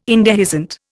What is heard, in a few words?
"indehiscent", Indian